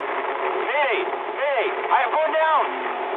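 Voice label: aircraft destroyed mood high, speech English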